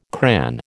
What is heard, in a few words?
"cran", American